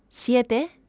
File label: "siete", Spanish